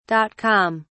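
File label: "dot com", British English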